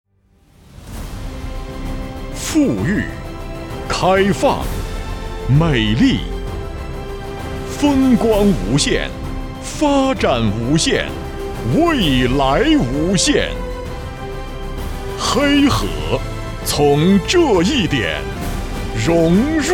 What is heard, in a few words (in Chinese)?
男 形象广告; 黑河